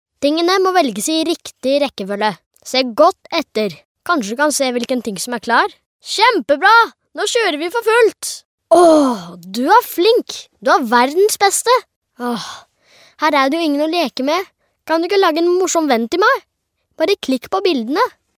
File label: norwegian boy